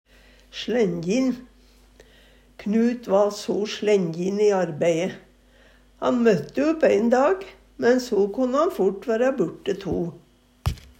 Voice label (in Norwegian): slænjin, Numedalsmål